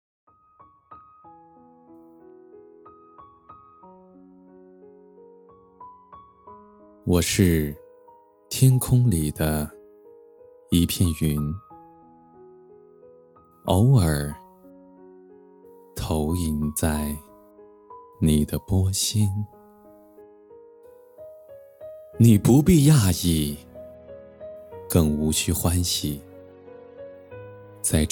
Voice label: 朗诵; 徐志摩偶然

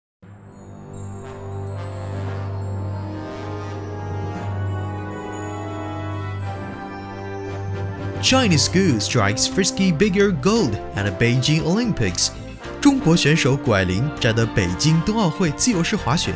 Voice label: 双语新闻样音

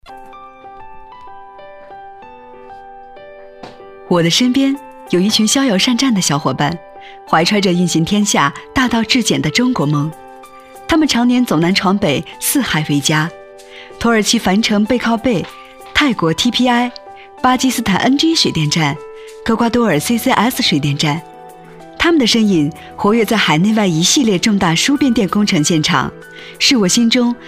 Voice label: 亲切自然

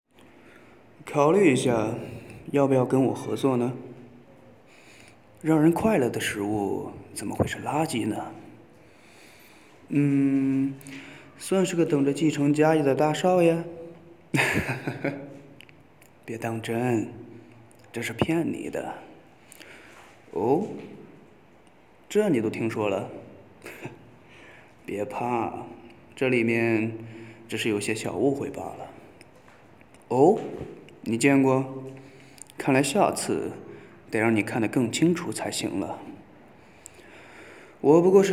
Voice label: 动漫 配音

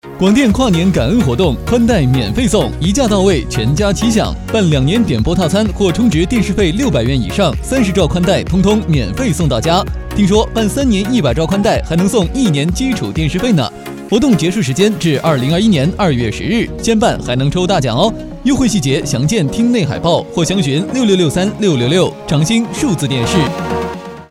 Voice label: 电台

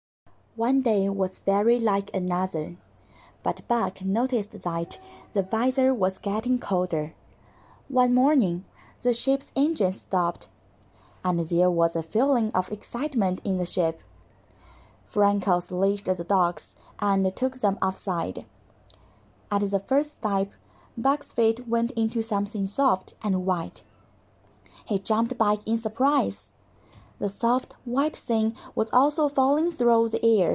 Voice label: 英文; 野性的呼唤节选

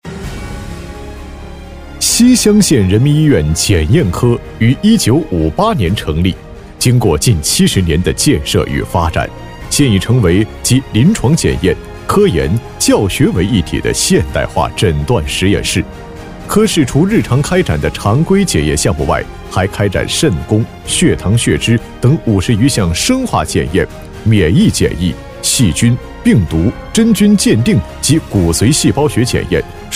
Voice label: 宣传片; 开花检验科

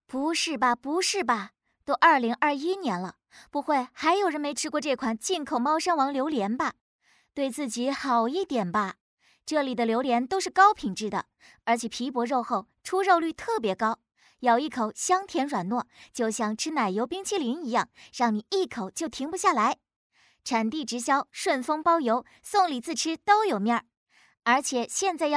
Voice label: 电商广告

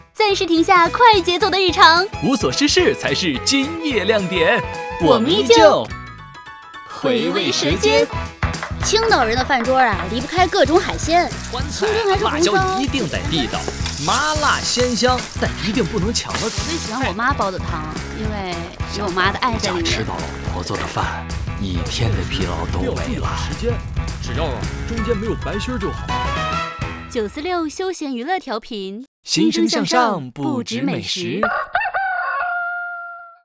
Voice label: 电台台宣

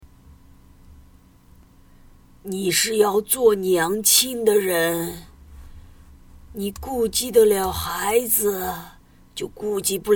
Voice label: 女强人角色

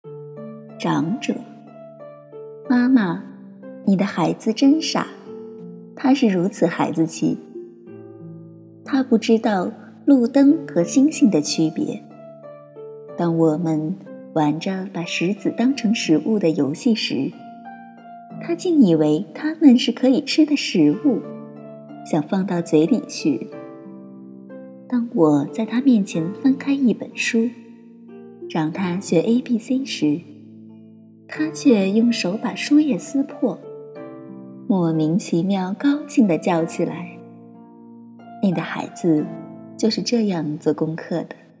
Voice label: 长者

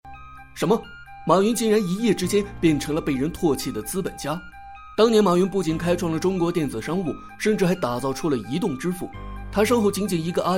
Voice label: 流畅感情带入

